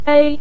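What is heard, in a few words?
"bei" Mandarin Chinese, neutral tone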